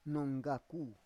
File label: "nonggaku", Roon